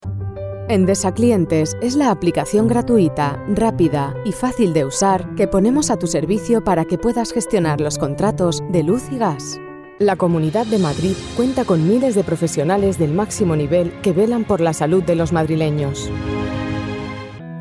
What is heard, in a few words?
ES F Corporate soft